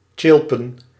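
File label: "tsjilpen", Dutch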